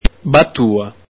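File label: "batua", Veneto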